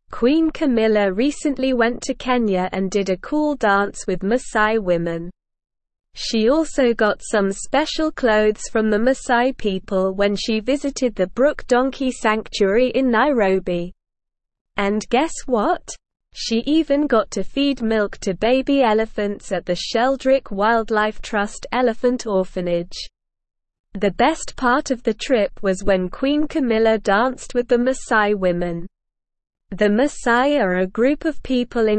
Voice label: English Newsroom Upper Intermediate SLOW Reading; Queen Camillas Kenya Visit Cultural Engagement and

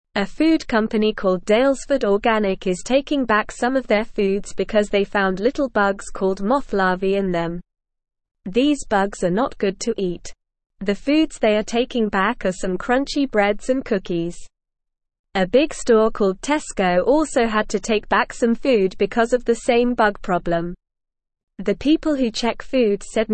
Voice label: Beginner NORMAL Reading, English

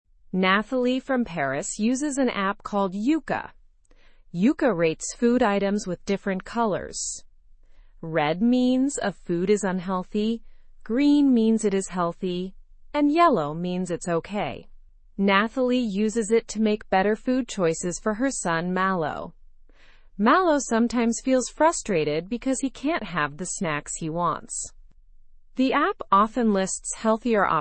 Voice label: learn english through news lower intermediate; apps help people choose healthier foods

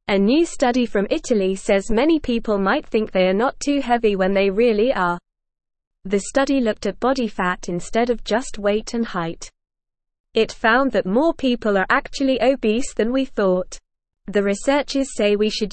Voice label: English NORMAL Reading; Study Body Fat Shows Who Is Really Obese

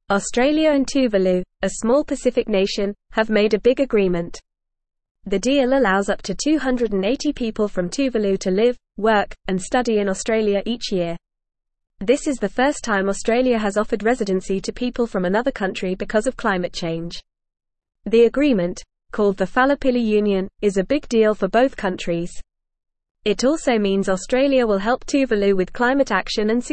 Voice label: English Upper Intermediate FAST Reading; Australias Historic Pact Refuge for Tuvaluans from; English Newsroom

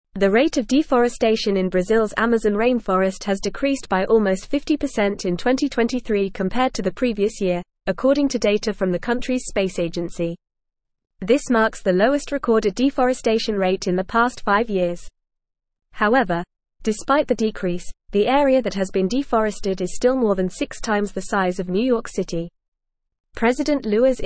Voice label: English Advanced FAST Reading; Brazils Amazon Deforestation Rate Drops by 50; English Newsroom

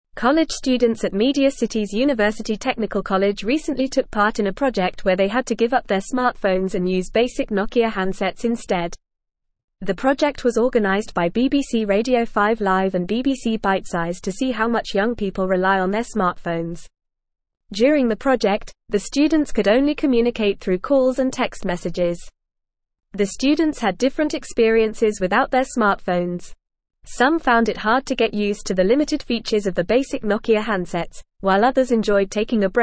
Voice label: English Upper Intermediate FAST Reading; Students Detox from Smartphones to Reflect on Usage

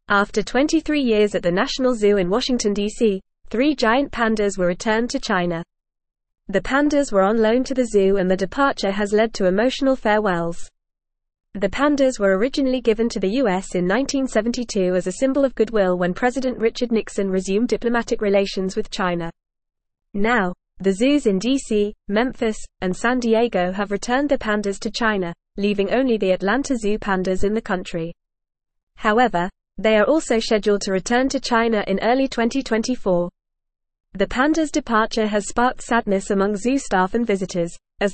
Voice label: English Newsroom Advanced FAST Reading; Pandas Return to China After 23 Years in US

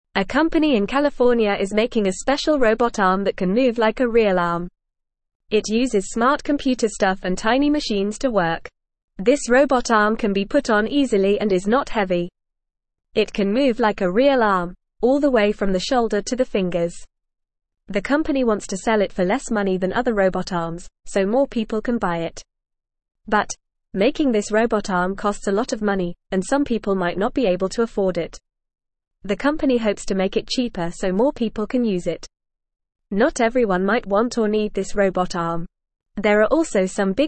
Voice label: English Beginner FAST Reading; English Newsroom; Special Robot Arm Moves like a Real Arm

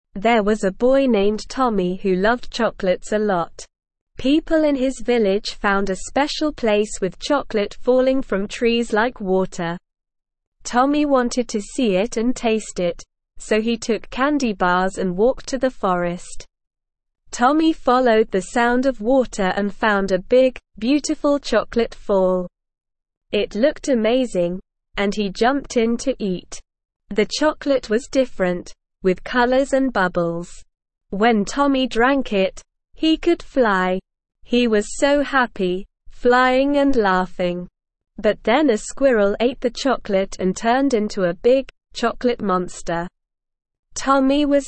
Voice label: ESL Short Stories for Kids Beginner SLOW Reading; The Chocolate Waterfall

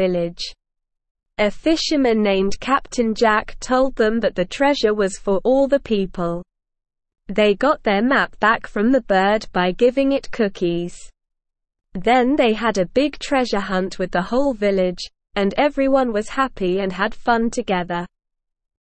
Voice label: ESL Short Stories for Kids Beginner SLOW Reading; The Treasure of Smugglers Cove

